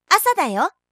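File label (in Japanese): "asadayo", Japanese; announce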